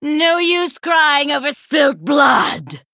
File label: Female Sanlayn